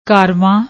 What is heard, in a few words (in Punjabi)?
"kaarvan", Punjabi